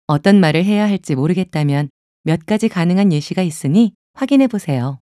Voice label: preprocessing error, Bixby (Korean); Samsung Galaxy S8